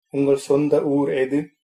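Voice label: where from, Tamil, male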